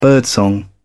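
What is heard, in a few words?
"birdsong", British English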